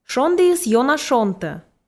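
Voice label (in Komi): "шондіыс ёна шонтӧ", Komi, female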